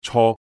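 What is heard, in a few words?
Cantonese "co3"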